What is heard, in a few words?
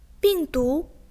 "bing4du2", zhongwen